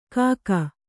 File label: "ಕಕ್ಕು", Kannada